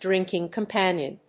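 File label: "drinking companion", English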